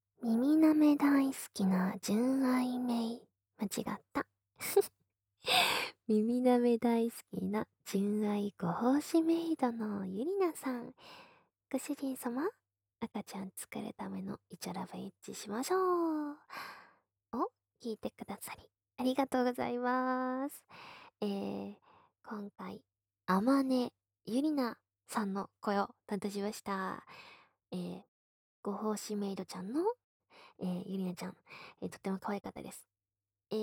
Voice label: 声优访谈

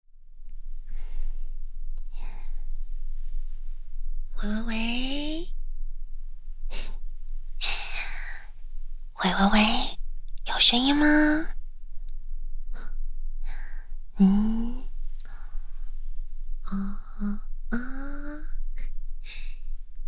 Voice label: ASMR麥克風開箱直播; EX. 番外篇：諾莉的ASMR麥克風開箱直播

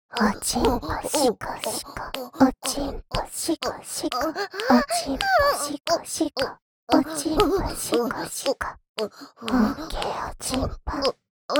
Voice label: 緊貼低語服侍 哦齁嬌喘; 自慰輔助用特典音聲

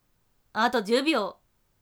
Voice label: 还剩10秒, 女生; 俺娘; 计时30秒

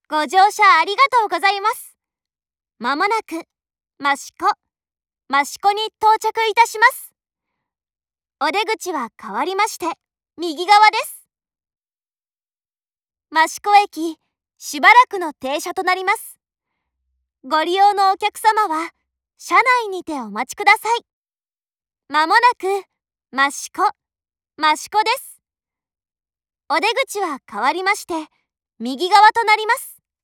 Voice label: 益子站抵达前 广播, 车内广播; 万冈铁路车内广播；茂木方向

